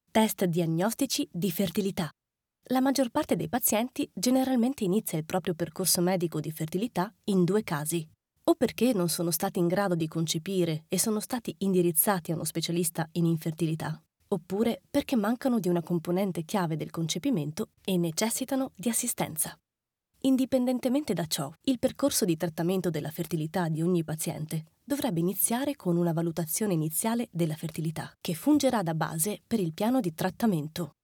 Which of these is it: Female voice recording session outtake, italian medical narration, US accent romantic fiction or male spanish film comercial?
italian medical narration